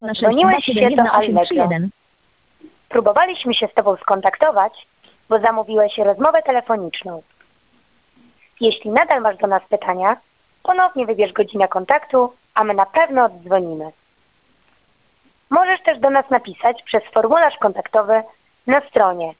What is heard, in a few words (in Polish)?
Allegro automatyczna informacja